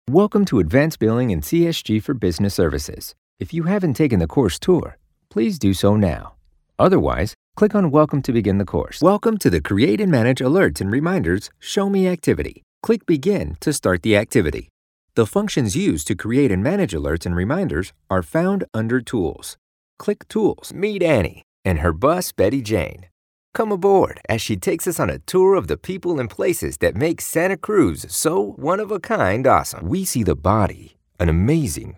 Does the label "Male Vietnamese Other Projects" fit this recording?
No